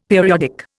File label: "periodic", Indian; Dictionary